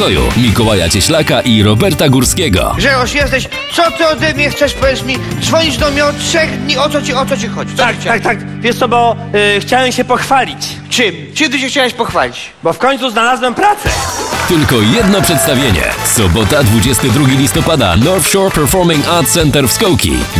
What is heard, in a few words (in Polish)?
SZWEDZKI STOL REKLAMA